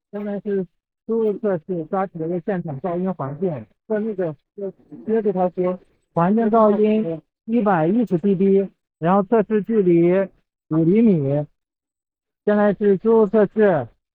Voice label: After noise reduction; traditional noise reduction vs. AI voice extraction